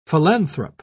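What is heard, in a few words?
"philanthrope", British English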